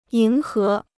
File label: "迎合", Chinese